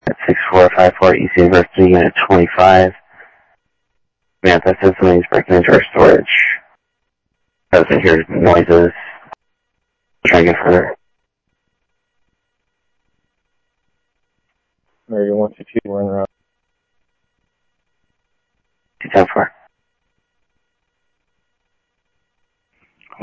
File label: South Scottsdale Sheriff, scanner audio